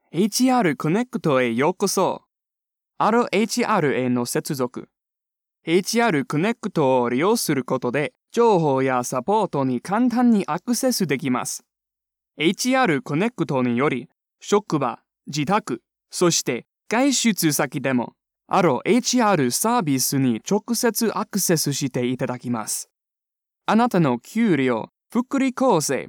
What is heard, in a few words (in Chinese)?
外籍英男 日语配音, 银行商业广告 激情励志风